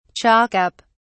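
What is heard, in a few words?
"chalk up", British English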